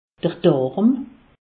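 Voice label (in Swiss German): "darm", Alsatian; medecine; colon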